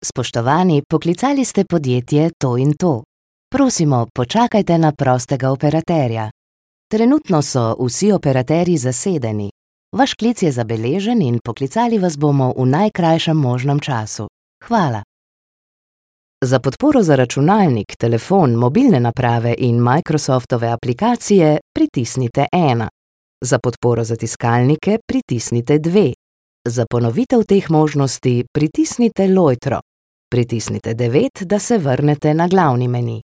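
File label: slovenian interactive voice response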